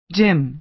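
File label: "gym", American English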